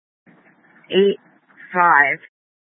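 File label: Dispatch, Scanner audio recording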